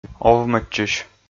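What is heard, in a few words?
"овмӧдчысь", Komi, male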